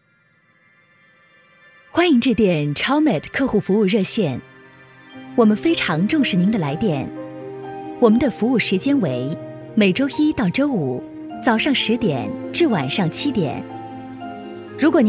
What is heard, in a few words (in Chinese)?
彩铃 亲切, 女国; CHAUMET